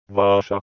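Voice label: "Vaasa", Finnish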